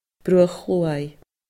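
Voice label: "Bruach Chluaidh", Scottish Gaelic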